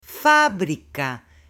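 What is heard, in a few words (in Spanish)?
"FABRICA", Spanish, female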